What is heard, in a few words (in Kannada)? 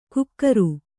"ಕುಕ್ಕರು", Kannada